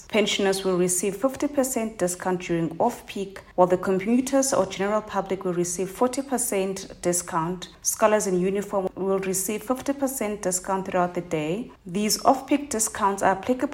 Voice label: ENG; Travel Off Peak